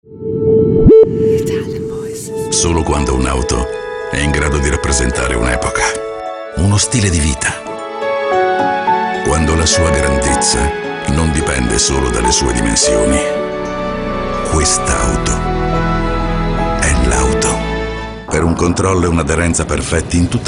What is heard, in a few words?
ITALIAN VOICES Authoritative Powerful